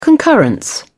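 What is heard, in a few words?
"concurrence", British English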